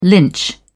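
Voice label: "lynch", English, British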